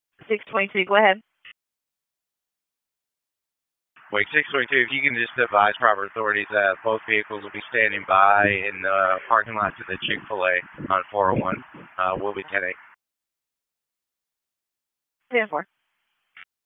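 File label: Dispatch, p25; WSO DISP 1; wake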